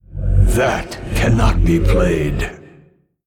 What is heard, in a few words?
Male Old God Emote ERROR PLAY